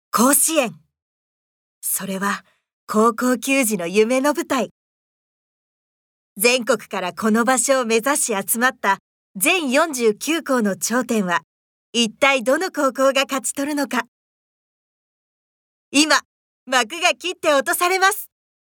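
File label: narration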